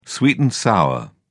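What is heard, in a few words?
"sweet and sour", British English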